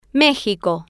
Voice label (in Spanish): "mexico", Spanish